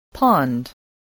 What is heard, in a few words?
"pond", English